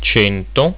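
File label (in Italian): "cento", Italian; getal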